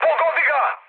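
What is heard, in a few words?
Serbian attack position mood high; speech; War Thunder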